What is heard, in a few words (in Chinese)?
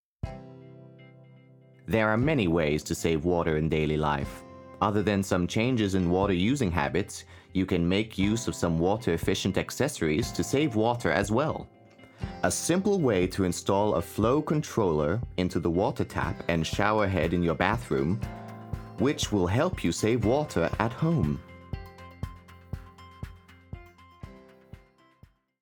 英語男聲 US